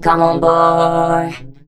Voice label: BOY ROBOT, Funky Vocals; USB Soundscan vol.18; AKAI; COMPUTER

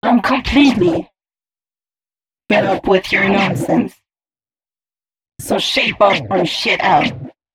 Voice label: female c#132bpm, spoken hooks

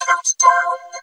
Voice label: COUNTDOWN, VOCODA SPEECH; Zero-G Ambient 1

